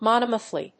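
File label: "monomethyl", English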